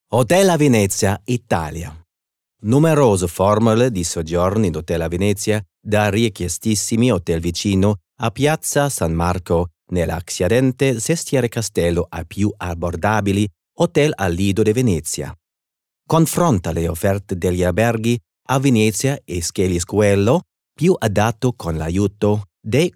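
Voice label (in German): Italian, Kommentar Neutral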